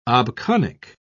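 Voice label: "obconic", British English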